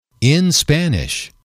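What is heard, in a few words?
"in language", English, male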